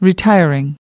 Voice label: "retiring", us

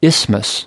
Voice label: "isthmus", us

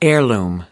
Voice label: "heirloom", us

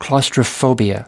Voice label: "claustrophobia", us

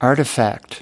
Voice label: "artifact", us

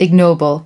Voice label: "ignoble", us

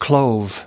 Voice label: "clove", US

